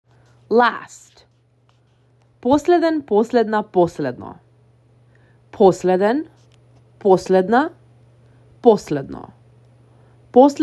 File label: Last, Macedonian; the talking macedonian phrasebook